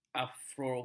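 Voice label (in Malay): "agorafobia", Malay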